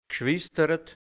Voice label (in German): "Gschwistered", Hianzisch, Mundart, Burgenland; Geschwister